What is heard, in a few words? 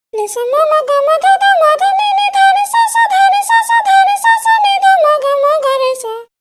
voice, pitchshift; prosoniq time factory mpex3